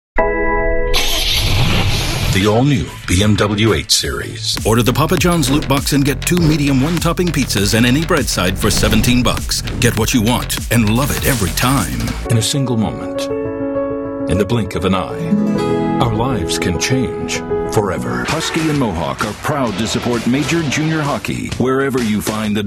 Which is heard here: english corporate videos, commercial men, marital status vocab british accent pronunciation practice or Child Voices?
commercial men